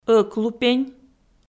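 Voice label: "ӧклупень", Komi, female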